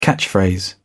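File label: "catchphrase", British English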